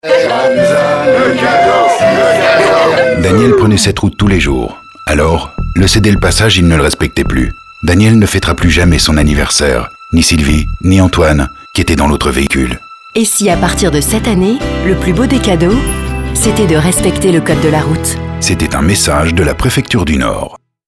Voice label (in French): Serieux; SECURITE ROUTIERE